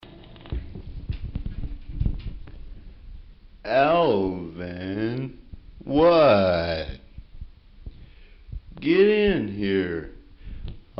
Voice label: Chipmunks Impression